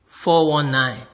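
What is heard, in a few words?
"419", Nigerian Pidgin, male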